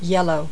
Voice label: "yellow", colors; VOICES

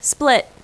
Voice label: "split", casino

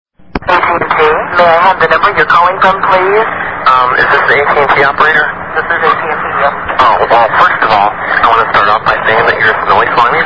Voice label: voicemail greeting; Annoying The Phone Company

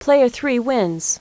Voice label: PLAY 3 WIN, CASINO

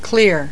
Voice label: "clear", voices

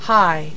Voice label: "high", voices

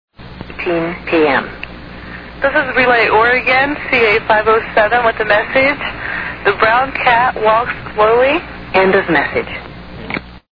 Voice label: relay brown cat, deaf relay calls

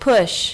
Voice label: "push", casino

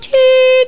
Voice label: FEMALE

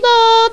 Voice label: FEMALE

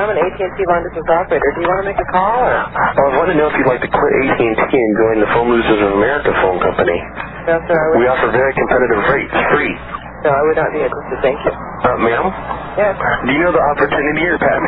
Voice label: telemarketing; Annoying The Phone Company

